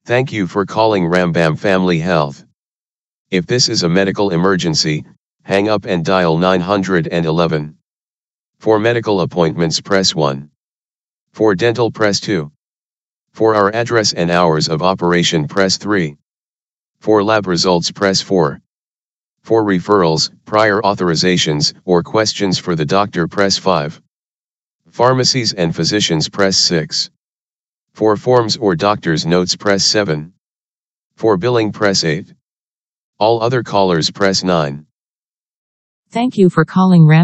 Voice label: Computer Voice Greeting; Rambam